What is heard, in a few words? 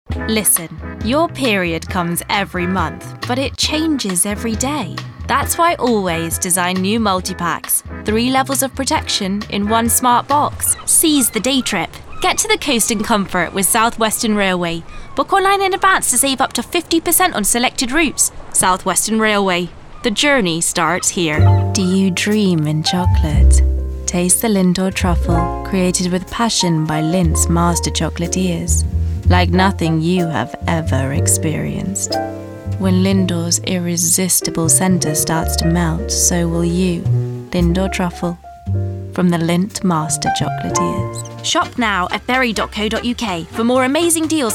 Commercials, female